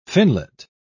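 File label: "finlet", British English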